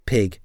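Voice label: "pig", English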